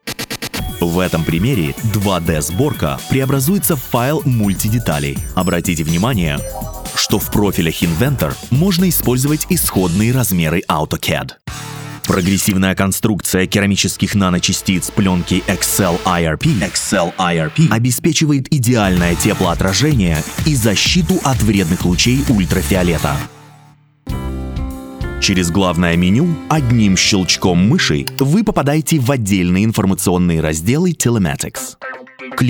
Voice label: Narration AudioBook Documentarie Educational Podcast eBook